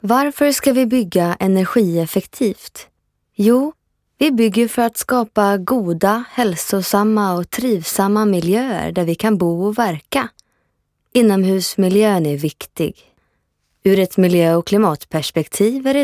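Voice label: Swedish female info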